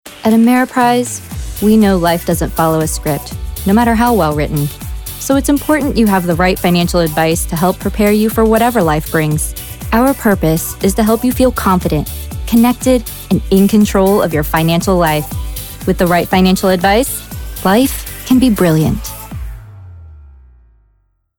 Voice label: Trustworthy Authoritative Natural